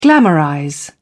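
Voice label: "glamorize", English, British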